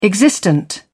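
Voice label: "existent", British English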